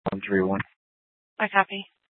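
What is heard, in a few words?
police dispatch, scanner; Mesa PD; 1 Fiesta Dispatch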